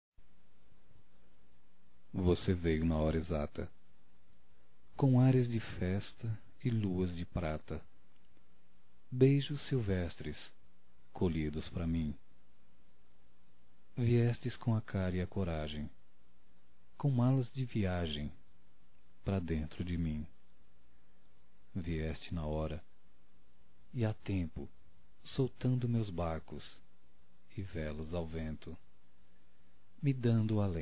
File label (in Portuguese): Romantica Poesia Masculino, Telemensagens; Românticas